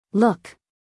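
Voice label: "look", American English, female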